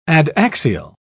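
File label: "adaxial", English, British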